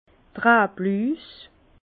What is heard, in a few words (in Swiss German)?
"rablus", Bas Rhin; phylloxera